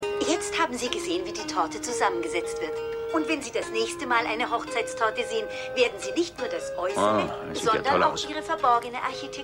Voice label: TV Konditorin; Was Frauen wollen

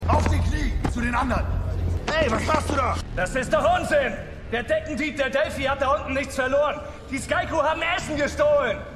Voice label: Wuetender Krieger; Azgeda; The 100

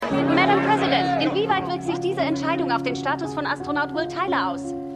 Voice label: Reporterin; For All Mankind

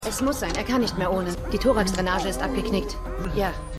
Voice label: Atmungstherapeutin; AtlantaMedical

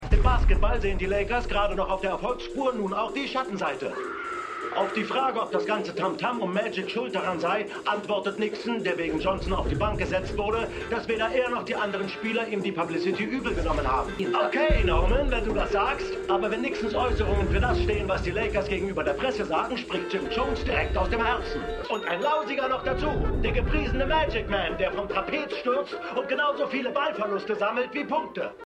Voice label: Sportmoderator; Winning Time

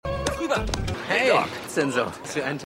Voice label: Mann; AusgerechnetAlaska

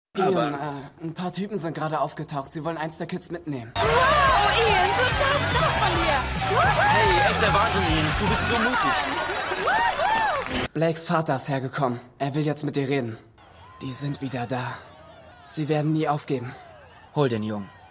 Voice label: Jugendliche, Stimmproben; Bic; Shameless

